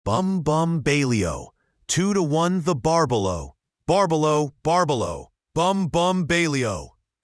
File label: male US; Bum Bum Bailey O; ttsmp3